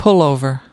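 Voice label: "pullover", US English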